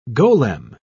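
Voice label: "golem", British English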